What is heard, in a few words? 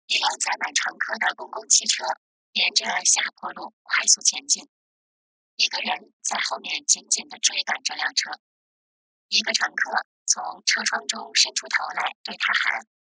Mandarin, Auralization